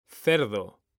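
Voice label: "CERDO", Spanish, male; mekanta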